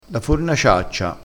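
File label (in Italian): "fornaciaccia", vocabolario dialettale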